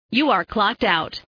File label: Prompt Clocked Out, English; Prompts English